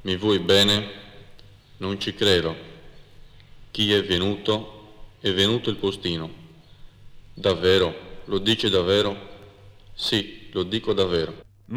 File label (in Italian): Intonazione Cagliari